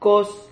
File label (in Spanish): "coz", Silabas